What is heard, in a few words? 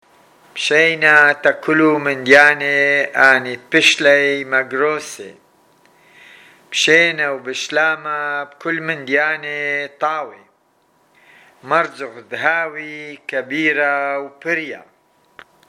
assyrian